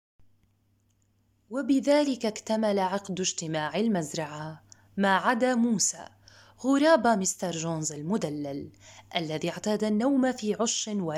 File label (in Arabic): الكتاب الصوتي; مزرعة الحيوان